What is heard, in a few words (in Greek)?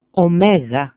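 "omega", Greek; ωμέγα literally= o great; [o'meγa]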